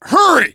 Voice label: hurry up, voice, biker; Left 4 Dead 2; left4dead2 dlc3; survivor